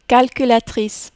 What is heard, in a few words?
"calculatrice", French